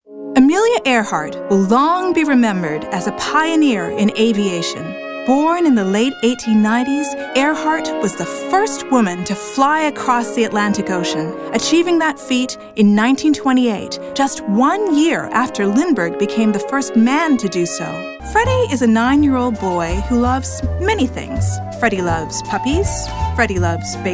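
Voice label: 20s 30s African American Narration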